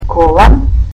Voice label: "belt", Macedonian